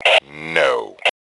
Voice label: "No", male; T2 Male 02